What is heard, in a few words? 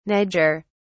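"niger", British English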